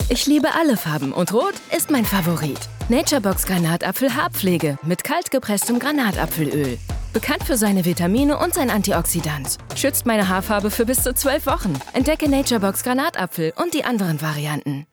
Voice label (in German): TV-Spot; naturebox